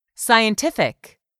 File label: "SCIENTIFIC", english; 3000 words